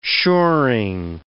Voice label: "shoring", words

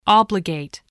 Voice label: "obligate", voice, spelling

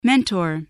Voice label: "mentor", voice, spelling

